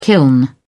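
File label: "kiln", British English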